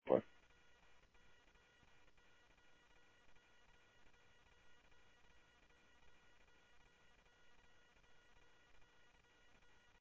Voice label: Tempe Police, scanner audio